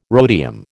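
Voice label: "rhodium", American English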